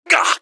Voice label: pain, npcs gasmask; scmod

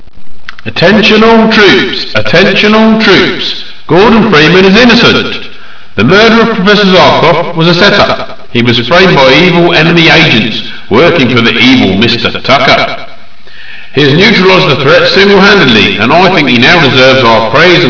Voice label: announce

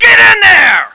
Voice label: charge, Soldier; Opposing Forces; FSoldier

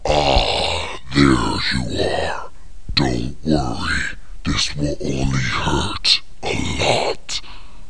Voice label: hurt dialogue

